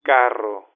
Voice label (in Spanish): "carro", filt, telephone